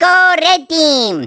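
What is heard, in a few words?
"go red team", baby voice; babyluigi; WII; MARIOKARTWII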